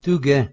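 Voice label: "tuge", Proto-Indo-European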